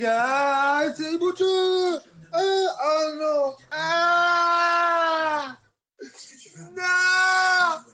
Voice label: aaah nooon

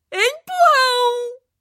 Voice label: voice shove

